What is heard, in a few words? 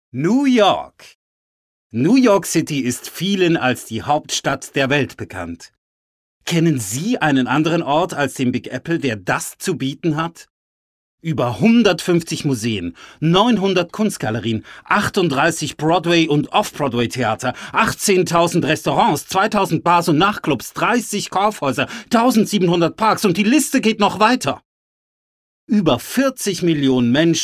Infomercial; New York